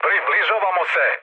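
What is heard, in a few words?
Serbian near waypoint mood high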